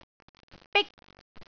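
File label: "bik1", Cantonese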